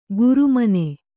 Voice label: "ಗುರುಮನೆ", Kannada

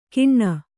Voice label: "ಕಿಣ್ಣ", Kannada

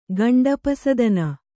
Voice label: "ಗಂಡಪಸದನ", Kannada; gaṇḍa pasadana; noun; = ಗಂಡವಸದನ.

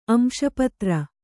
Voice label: "ಅಂಶಪತ್ರ", kannada

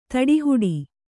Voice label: "ತಡೆಹಿಡಿ", Kannada

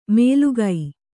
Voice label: "ಮೇಲುಗೈ", Kannada

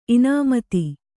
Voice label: "ಇನಾಮತಿ", Kannada

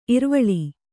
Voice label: "ಇರ್ವಲ", Kannada

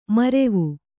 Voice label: "ಮೌರ್ವ", kannada

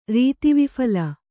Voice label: "ರೀತಿವಿಫಲ", Kannada

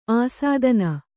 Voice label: "ಆಸ್ಥಾನ", kannada

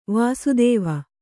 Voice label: "ವಾಸ್ತವ", Kannada

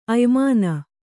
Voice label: "ಅಯ್ಮಾನ", kannada

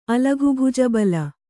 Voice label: "ಅಲಘುಭುಜಬಲ", kannada

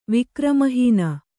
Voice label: "ವಿಕ್ರಮಹೀನ", Kannada

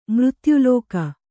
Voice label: "ಮೃತ್ಯುಲೋಕ", Kannada

